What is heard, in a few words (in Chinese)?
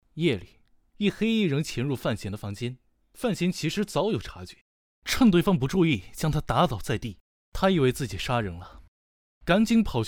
影视解说